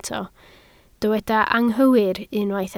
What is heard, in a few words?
"anghywir", Welsh